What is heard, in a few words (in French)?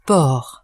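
"port", French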